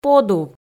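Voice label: "подув", Komi, female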